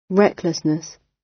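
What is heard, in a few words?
"recklessness", British English; Crime and punishment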